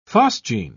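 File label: "phosgene", British English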